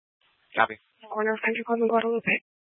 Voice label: Mesa PD Dispatch; 1 Fiesta Dispatch